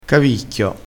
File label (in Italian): "cavicchio", crespinese